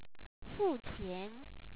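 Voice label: "fu4qia2n", Chinese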